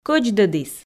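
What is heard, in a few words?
"кӧдздӧдіс", Komi, female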